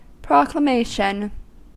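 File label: "proclamation", US English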